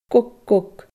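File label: "gog-gog", Scottish Gaelic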